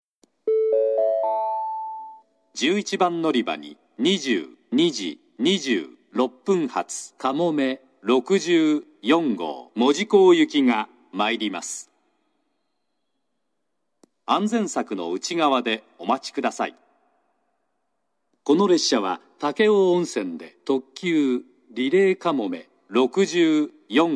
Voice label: 11番のりば接近予告放送; かもめ64号 門司港行き; 入線の概ね4～5分前に流れます。; shin omura

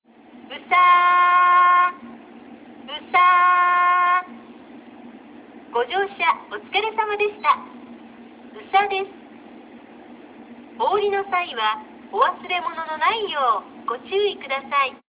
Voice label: 全ホーム 到着放送; 宇佐; 旧放送はJACROS簡易型で、のりばパーツが旧タイプでした。

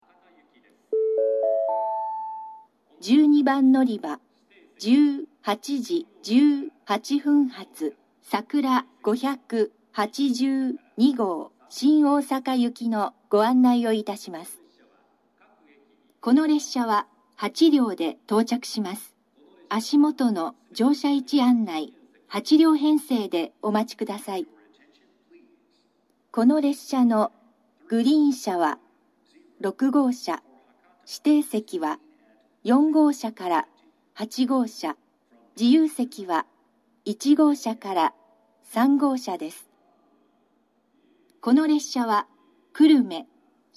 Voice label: のりば案内放送, 新幹線ホーム; 12番のりば案内放送（さくら582号 新大阪行き）※三原停車; ⇒三原に停車する、臨時のさくら号です。; kumamoto

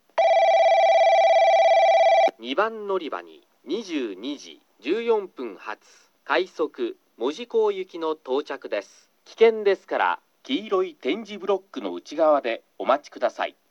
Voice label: 接近放送＋ベル 男声; JACROS簡易型

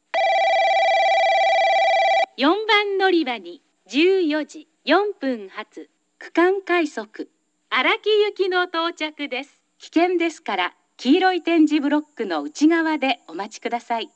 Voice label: 4番のりば接近放送（区間快速 荒木行き）

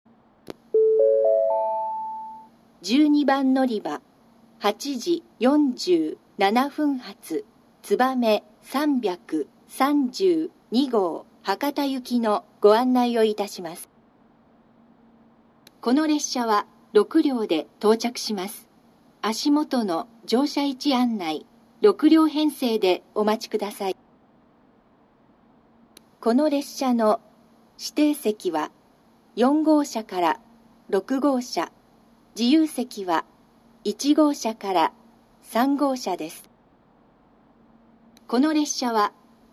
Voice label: 12番のりば案内放送, 新幹線ホーム; 新鳥栖; つばめ332号 博多行き; 博多で「みずほ602号 新大阪行き」に接続

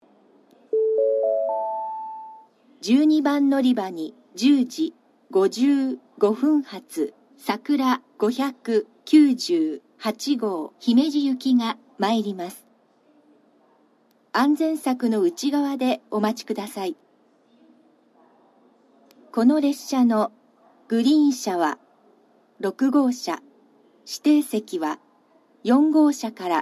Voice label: のりば予告放送, 新幹線ホーム; 12番のりば予告放送（さくら598号 姫路行き）; kumamoto

